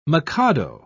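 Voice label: "mikado", British English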